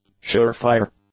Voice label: "sure-fire", English; adjectives